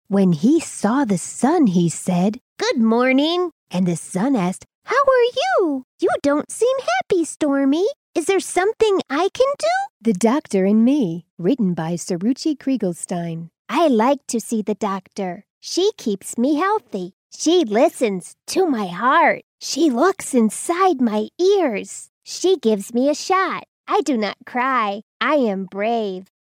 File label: Children Audiobook